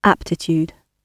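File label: "aptitude" British English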